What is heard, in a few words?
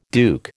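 "duke", American English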